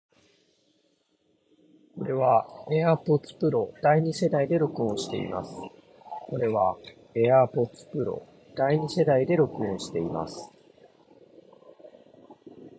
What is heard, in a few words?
edifier wh950nb voice